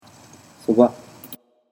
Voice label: "soba", Japanese